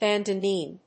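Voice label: "Bandoneon", English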